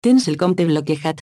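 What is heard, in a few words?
Account Locked, Catalan; AXEFIAudio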